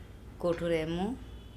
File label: "koThorEmo", Andamanese; bowl